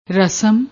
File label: "rasam", Punjabi